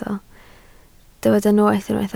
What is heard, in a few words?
"noeth", Welsh